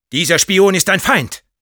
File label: cloaked spy identify, de; Sniper